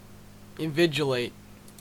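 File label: "invigilate", Canadian English; pronunciations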